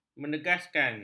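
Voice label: "menegaskan", Malay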